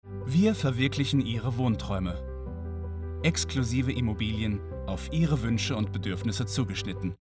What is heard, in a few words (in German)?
Werbung Immobilien mittelhell warm seriös